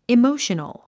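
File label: "emotional", English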